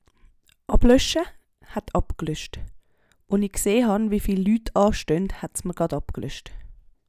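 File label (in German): ablösche, Swiss German; Hauptwortschatz; Wortschatz Kapitel 8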